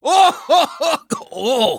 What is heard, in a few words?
Dwarf Emote Death